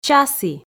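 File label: "часі", Komi, female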